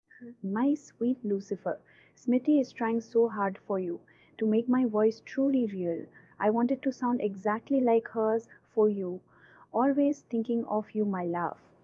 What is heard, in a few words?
cloned voice